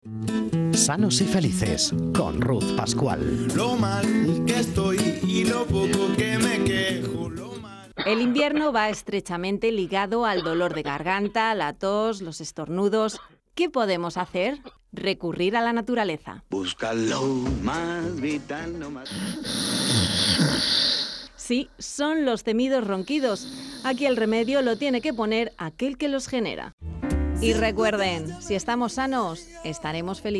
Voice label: spanish podcasts

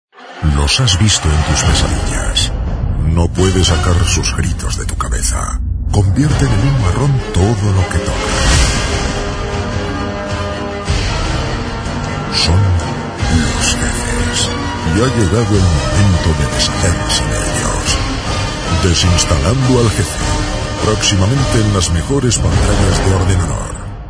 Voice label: spanish movie trailers

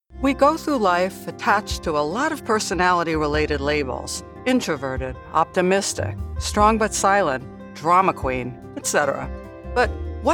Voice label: english american training e-learning

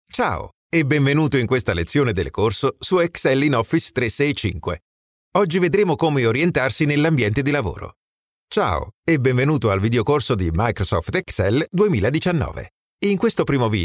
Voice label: italian training e-learning

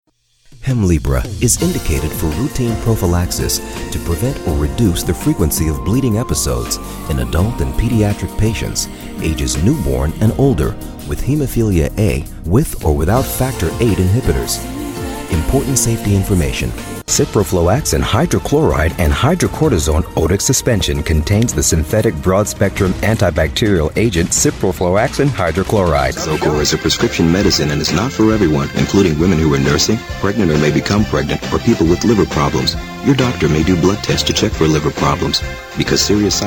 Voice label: english american medical narration

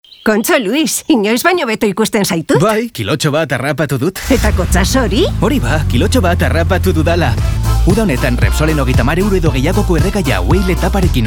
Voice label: basque promos

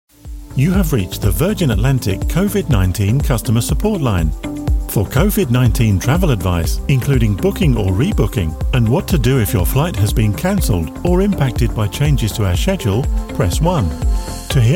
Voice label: english british ivr

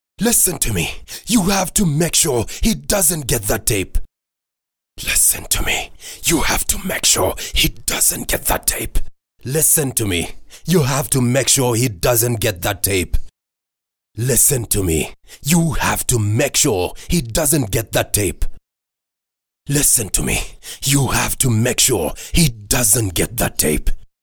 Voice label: english african animation

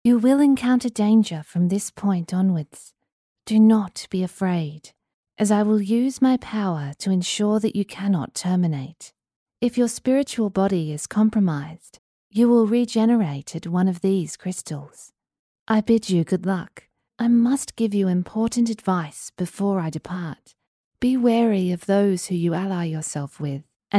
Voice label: English Australian video games

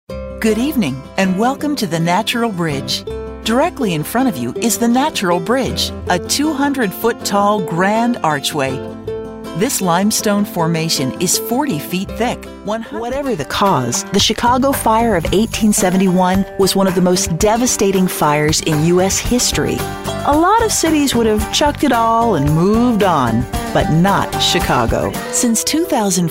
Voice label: english american explainer videos

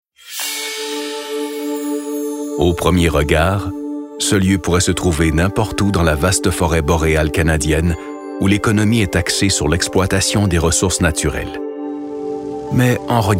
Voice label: french canadian narration

